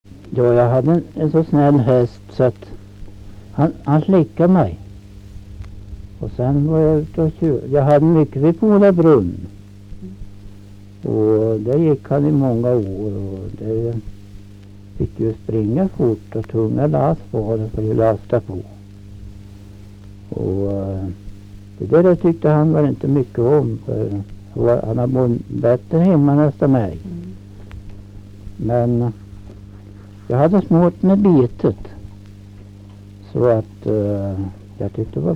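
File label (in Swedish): Skagershult Närke, dialekter; Folkminnen; Svenska sagor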